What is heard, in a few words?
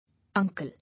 "Uncle", English